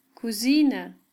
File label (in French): cousine, german